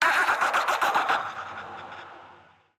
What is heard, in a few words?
es-mx; fiddlesticks